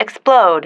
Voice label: "explode", female